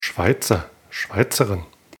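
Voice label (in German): "schweizer", German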